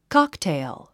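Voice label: "cocktail", English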